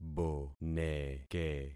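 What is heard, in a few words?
"boneke", concatenated syllables; Affixing experiment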